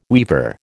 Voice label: "weaver", American

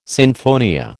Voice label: "sinfonia", American

